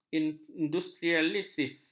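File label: "industrialis", Malay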